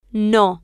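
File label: "no", Spanish, female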